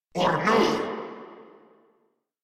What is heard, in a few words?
Surprised, Grineer Male